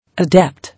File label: "Adept", English